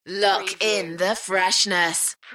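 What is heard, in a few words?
UK female, DJ vocals; Lock in the freshness; beanstalk audio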